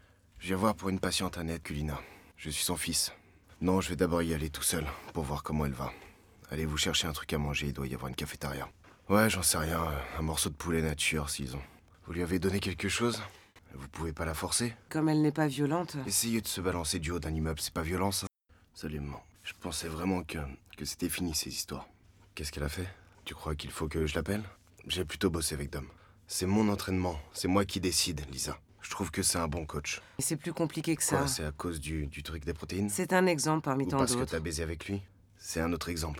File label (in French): Doublage Voix off